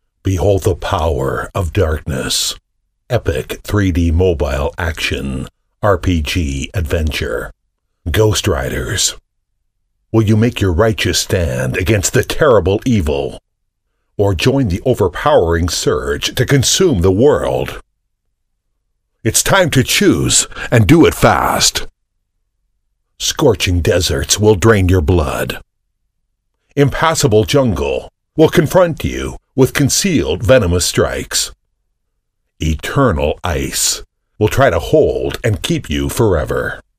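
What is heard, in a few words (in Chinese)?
外籍男英_外语_美式英语_游戏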